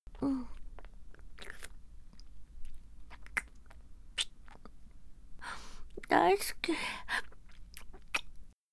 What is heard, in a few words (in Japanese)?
キスまたはフェラ中の大好き, ループボイス